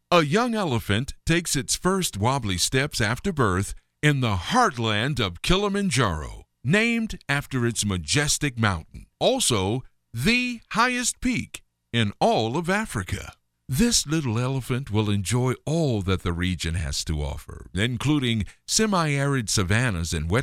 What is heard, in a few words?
male american voice over upbeat; english american